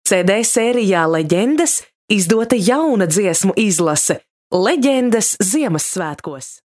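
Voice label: Latvian, female, commercial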